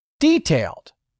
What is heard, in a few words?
"detailed", English; 3000 words